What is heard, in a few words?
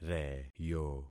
"reyo", concat syllables; Affixing experiment